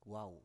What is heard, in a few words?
"wau", Biak